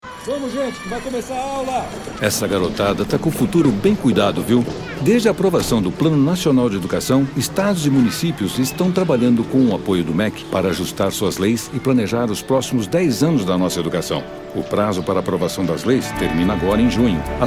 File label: spot; pne 2015